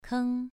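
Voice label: "keng", Mandarin Chinese, second tone, pronunciation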